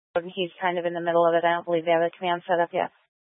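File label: Incident Tactical 1, Scanner audio recording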